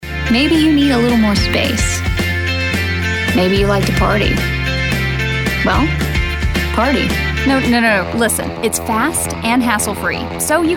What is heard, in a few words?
Commercial